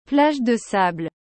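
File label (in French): "plage de sable", French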